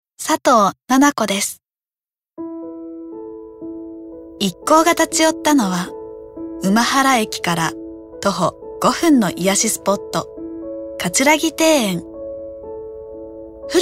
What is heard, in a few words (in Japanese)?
ノーマル